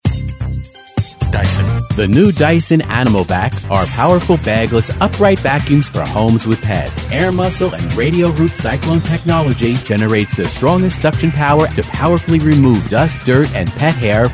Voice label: COMMERCIAL; DYSON